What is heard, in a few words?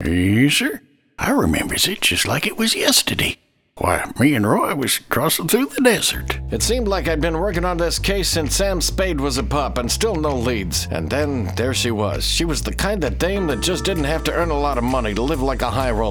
Character